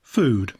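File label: "food", English; poitiers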